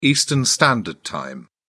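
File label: "eastern standard time", British English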